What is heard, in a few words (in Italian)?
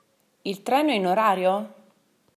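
Treno In Orario, Italian; italybytrain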